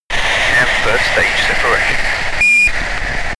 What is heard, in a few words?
astronaut chatter